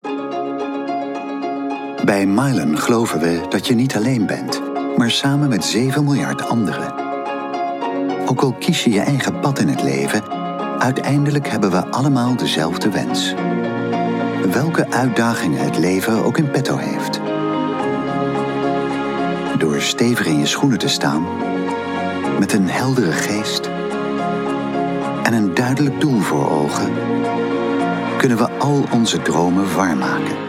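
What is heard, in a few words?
Dutch, narrative; GoLocalise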